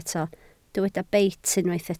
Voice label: "beit", Welsh